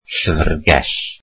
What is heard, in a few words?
welsh library